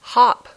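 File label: "hop", English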